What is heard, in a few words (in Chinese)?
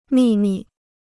"秘密", Chinese